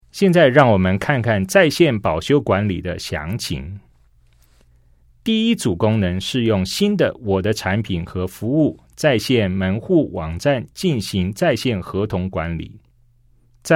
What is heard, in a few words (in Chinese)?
CHINESE